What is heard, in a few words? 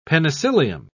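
"penicillium", English, British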